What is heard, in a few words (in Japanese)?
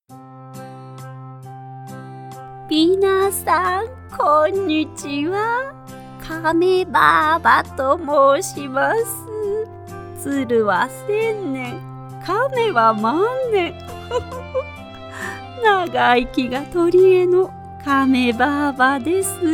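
ボイスサンプル（おばあさんキャラクター）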